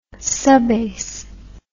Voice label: "subbass", English